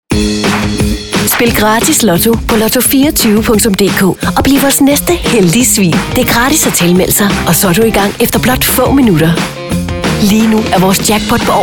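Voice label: danish female commercial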